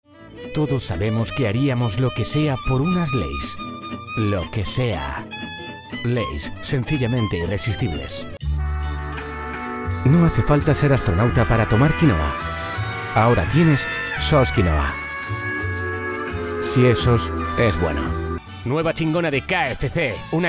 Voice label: spanish commercial